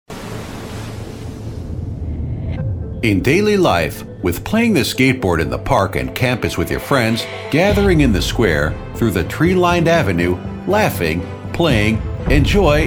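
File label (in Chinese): 外籍男英_外语_美式英语_稳重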